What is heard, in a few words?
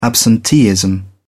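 "absenteeism", British English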